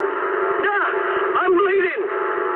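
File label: damaged pilot mood medium, speech English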